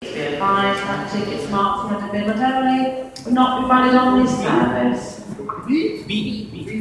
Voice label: coventry station s announcement lady has a musical voice